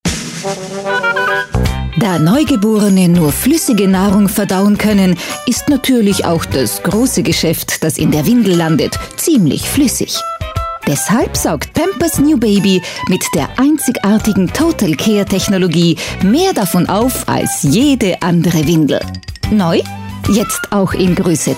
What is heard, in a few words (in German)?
oesterreichisch werbung; sprecher